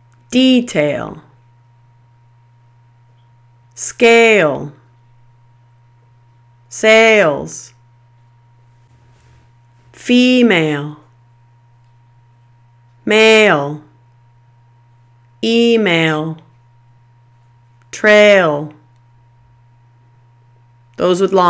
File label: Syllabic L Words, American English; American English Important Sounds for Perfect Pronunciation; The L Sound